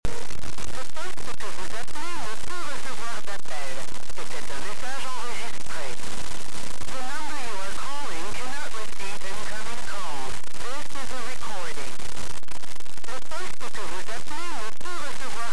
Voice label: bell quebec no incoming call; payphone